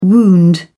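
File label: "wound", British English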